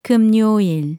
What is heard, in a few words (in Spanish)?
Viernes en Coreano